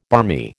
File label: "barmy", American